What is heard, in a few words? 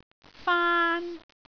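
"faan1", Cantonese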